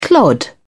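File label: "clod", British English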